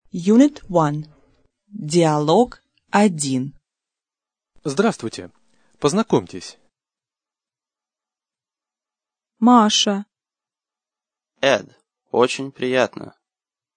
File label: dialog, russian